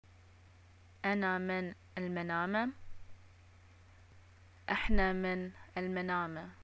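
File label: i am from, Bahraini arabic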